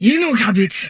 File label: humanoid portrait female; Stellaris; humanoids